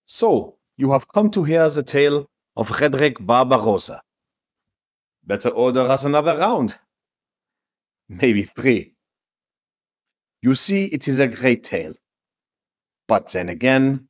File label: Northwestern European accent Sample